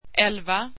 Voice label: swedish eleven